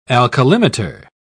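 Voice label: "alkalimeter", British English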